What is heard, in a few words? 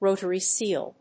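"rotary seal", English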